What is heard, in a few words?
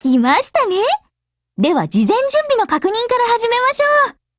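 Japanese, Voice; GF Alarm; SAT8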